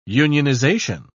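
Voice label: "unionisation", British English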